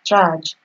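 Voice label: "charge", Nigerian Pidgin, female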